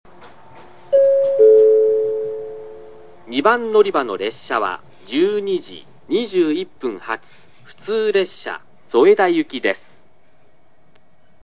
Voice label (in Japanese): 停車中放送・男性（普通・添田）; 小倉駅